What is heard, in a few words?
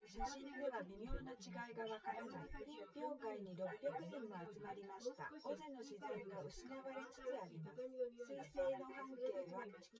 female synth conv 130ms 1m; SiSEC08; SiSEC underdetermined